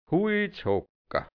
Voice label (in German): "Huizhocker", Hianzisch Mundart; m Holzfäller